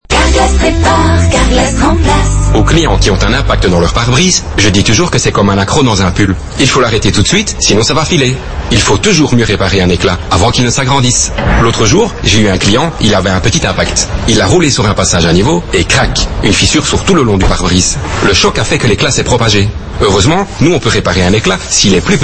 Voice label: FR; signature sonore; Carglass; carglass accelere depuis 30 ans avec la meme signature sonore